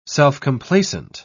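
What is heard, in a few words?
"self-complacent", British English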